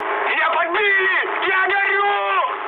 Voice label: on fire, mood high, speech ru; War Thunder

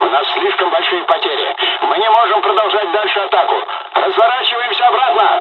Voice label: dialog, dialogs russian; War Thunder

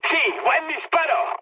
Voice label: player killed enemy, mood med, speech

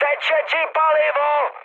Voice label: tank damaged, speech cze, mood high; War Thunder

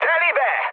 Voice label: bomb success, mood med, speech hun; War Thunder

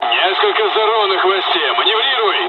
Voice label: dialogs russian; War Thunder

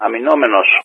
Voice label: engaged defensive; Wingman

